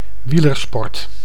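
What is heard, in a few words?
"wielersport", Dutch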